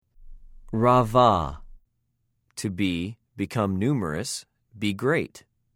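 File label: "רָבָה", Hebrew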